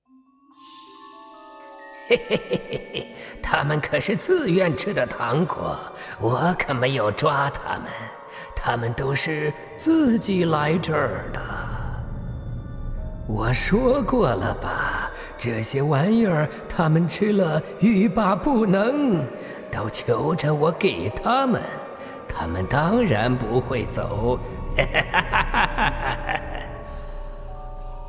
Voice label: 男 演绎 妖怪 阴险; 毒怪

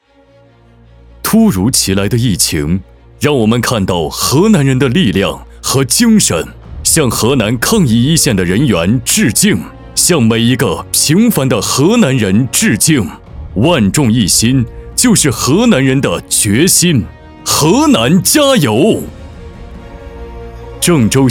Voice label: 男 宣传片 抗疫河南加油

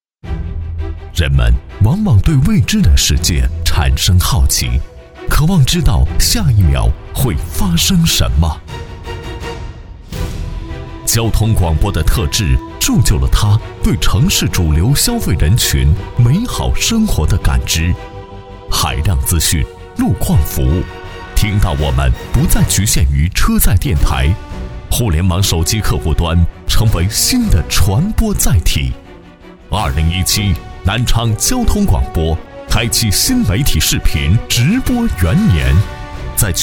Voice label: 电台 大气厚重; 南昌交广声无界爱随行

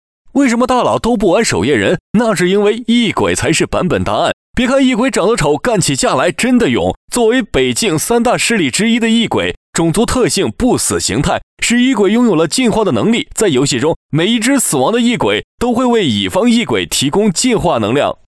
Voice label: 男 游戏广告

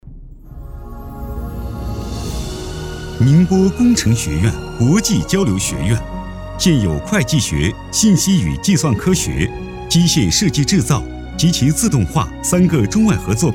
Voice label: 男 宣传片 大学招生类宣传

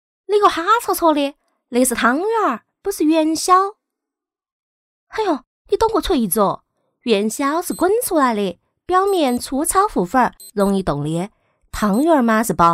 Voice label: 女 方言 四川话; 你个哈戳戳

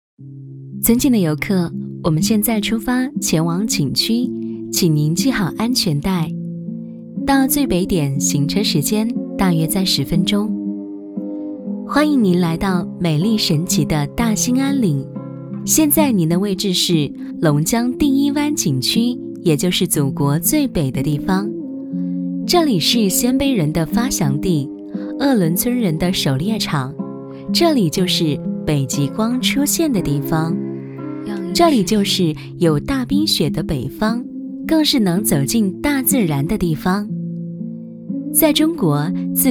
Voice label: 女 专题 景区讲解; 大兴安岭

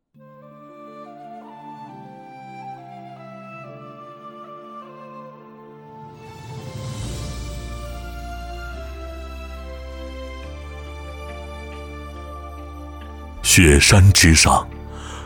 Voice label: 男 纪录片 古典优美; 牧歌

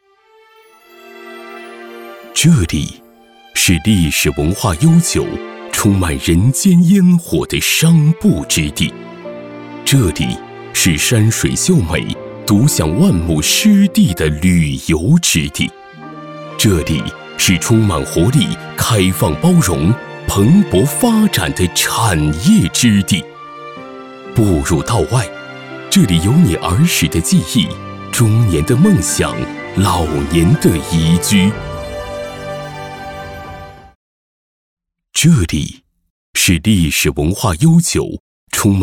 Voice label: 男 宣传; 魅力冰城; 记忆道外

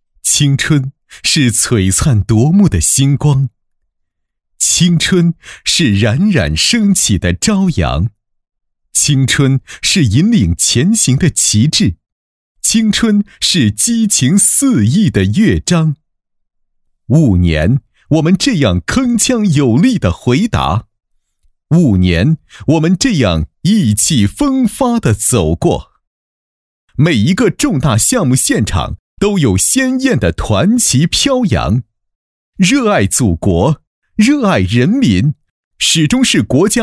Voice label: 男-党政汇报-年轻专题; 国家电投党组

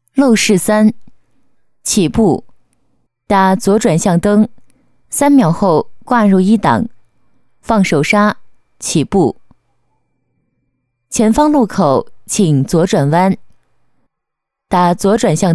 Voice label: 女 课件解说; 驾驶技能; 路试三

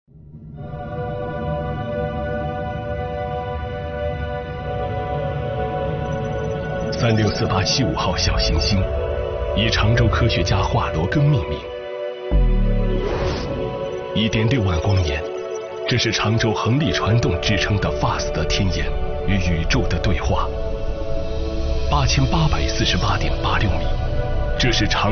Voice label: 男 太空科技展播; 常州太空科技

